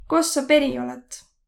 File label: where from, voro